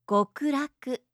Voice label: "gokuraku", japanische maedchennamen

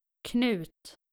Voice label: "knut", nordische jungennamen

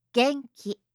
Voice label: "genki", japanische maedchennamen

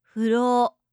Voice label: "furo", japanische maedchennamen

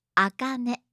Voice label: "akane", japanische maedchennamen

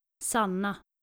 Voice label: "sanna", skandinavische maedchennamen

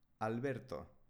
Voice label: "alberto", spanische jungennamen

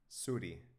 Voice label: "zuri", baskische

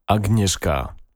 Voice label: polnische maedchennamen agnieszka

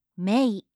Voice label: "Mei", japanische maedchennamen

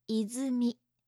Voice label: "izumi", japanische

